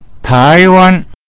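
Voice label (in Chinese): taiwan, Chinese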